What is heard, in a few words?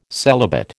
"celibate", American